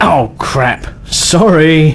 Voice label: Sorry, male; svencoop